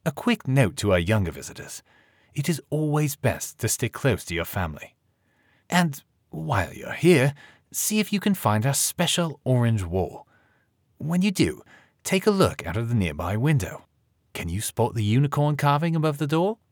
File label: Audio Guide British Children's Safety Notice